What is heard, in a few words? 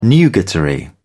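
"nugatory", British English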